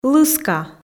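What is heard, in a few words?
"лыска" Komi, female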